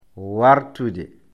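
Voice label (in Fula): "wartude", Fula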